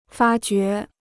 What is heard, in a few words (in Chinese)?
"发掘", Chinese